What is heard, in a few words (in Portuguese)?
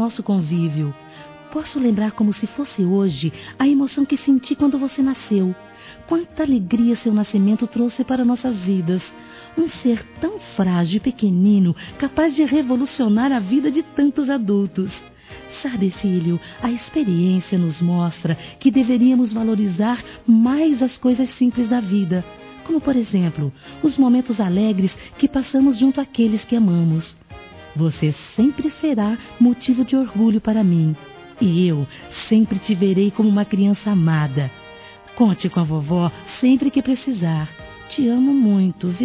Aniversário Neto Feminino, Telemensagens; Aniversários; Aniv Familiar